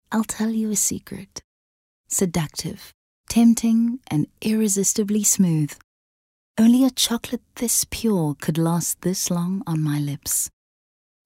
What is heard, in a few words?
English Intimate